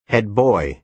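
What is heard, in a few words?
"head boy", American English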